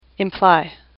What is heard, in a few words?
"imply", English; AWL